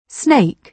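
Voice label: "snake", British English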